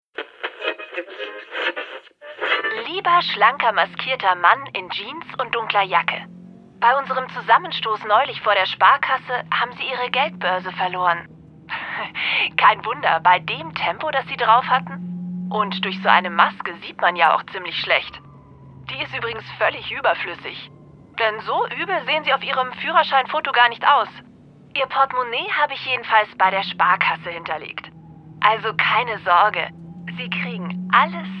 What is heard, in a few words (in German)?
Werbung Radio vertont; Werbung 1 (frech)